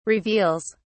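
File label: "reveals", British English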